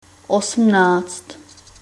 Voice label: eighteen, czech